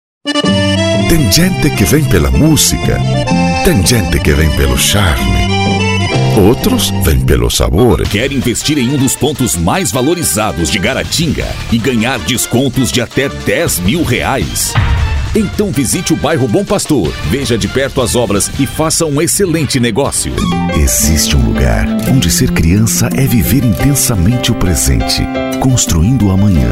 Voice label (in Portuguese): locutor